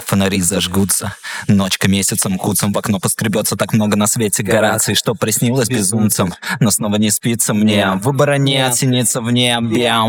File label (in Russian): сказка